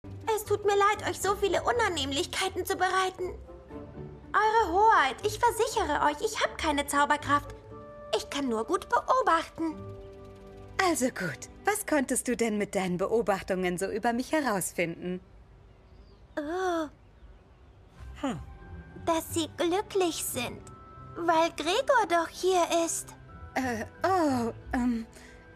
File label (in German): Zeichentrick; Krabbler